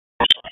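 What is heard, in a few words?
Raleigh Police Northeast District Dispatch, p25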